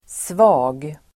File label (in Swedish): "svag", Swedish